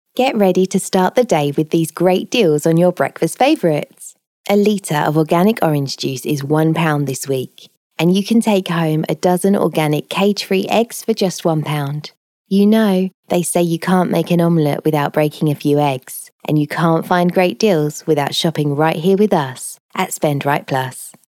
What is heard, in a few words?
Casual Conversational